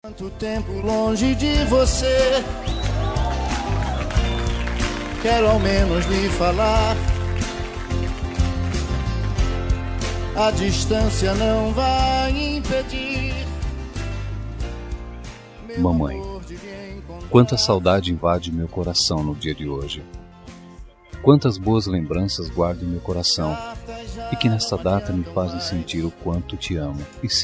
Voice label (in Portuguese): distante masc, Voz Masculina; dia das maes para mae distante voz masculina